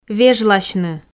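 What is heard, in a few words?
"вежласьны", Komi, female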